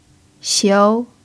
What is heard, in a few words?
"xiu2", Chinese